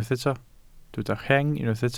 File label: "rheng", welsh